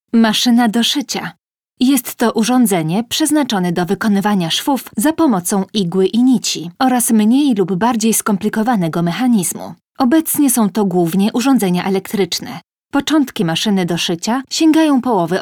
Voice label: polish